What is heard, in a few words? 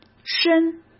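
"深", Mandarin Chinese; chinese flashcards; hsk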